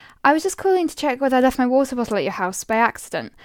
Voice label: Voice Message